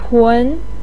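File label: "tun2" Chinese syllable, pinyin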